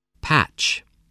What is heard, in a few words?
"patch", English, voice